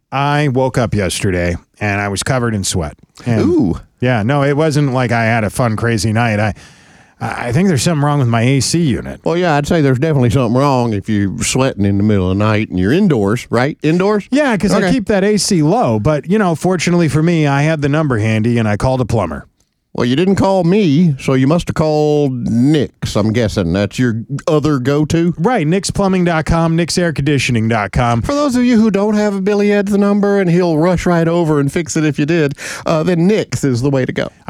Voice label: RADIO AD; nicks plumbing radio ads